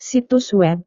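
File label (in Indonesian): "situs web", Indonesian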